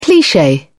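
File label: "cliche", British English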